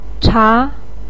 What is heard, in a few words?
"cha2", Chinese pinyin voice